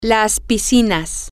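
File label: the swimming pools, Spanish, female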